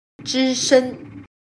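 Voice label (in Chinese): "只身" Mandarin Chinese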